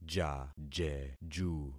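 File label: "jajeju", concatenated syllables; Affixing experiment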